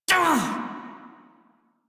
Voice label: Pain Male en; Dialog; Warframe; Railjack Crew; Red Veil